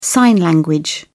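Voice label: "sign language", English, British English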